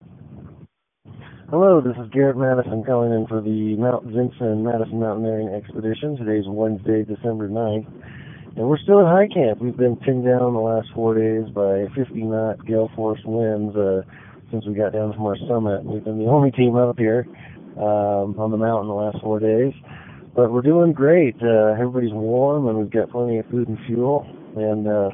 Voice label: voicemail